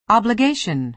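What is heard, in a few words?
"obligation", American English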